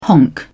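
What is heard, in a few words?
"honk", British English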